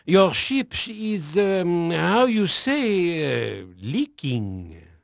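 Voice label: French male, voice; Pirates Of The Caribbean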